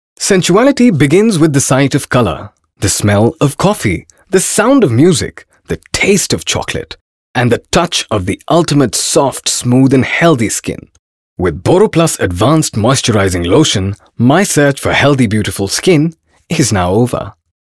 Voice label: English, male voice